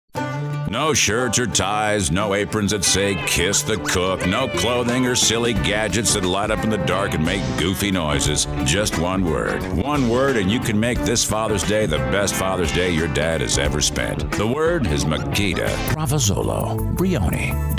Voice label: Commercial Male

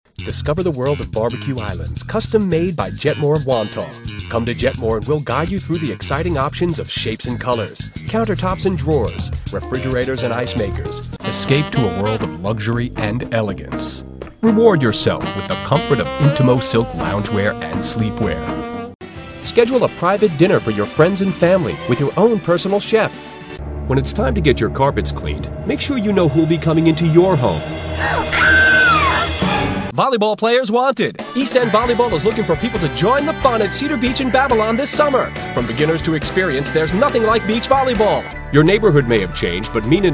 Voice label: Commercial Male

